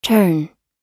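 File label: "turn", English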